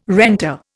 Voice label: "renter", Indian

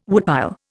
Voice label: "woodpile", Indian

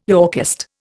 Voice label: "Yorkist", Indian

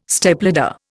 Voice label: "Stepladder", Indian

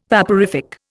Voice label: "vaporific", Indian

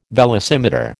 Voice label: "velocimeter", American

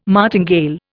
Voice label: "martingale", Indian

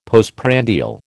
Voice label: "postprandial", American

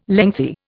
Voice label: "lengthy", Indian

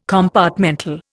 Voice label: "compartmental", Indian

